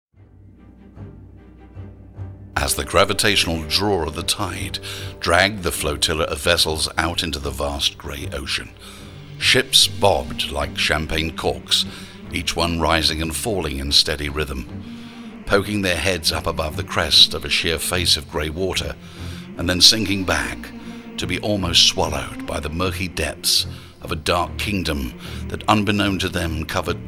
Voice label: deep brown voice narration